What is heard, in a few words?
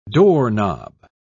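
"doorknob", English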